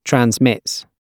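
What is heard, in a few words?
"transmits", British English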